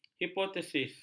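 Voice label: "hipotesis", Malay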